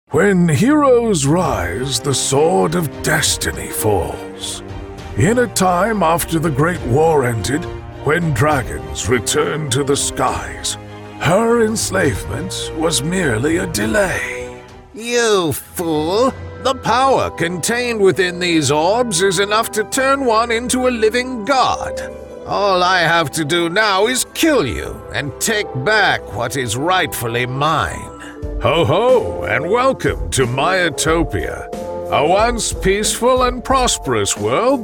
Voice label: Fantasy Wizard